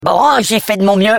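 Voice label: Defective Turret, French; sp sabotage factory; defect postrange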